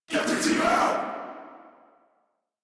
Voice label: Player Spotted, Battle Barks

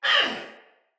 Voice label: Death Finisher, Soldier Female; Battle; Barks; Dialog; Sinecure Soldier Female A

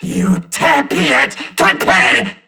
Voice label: Surprised Crewmen; Warframe; CorpusCrewmen; CrewmanD

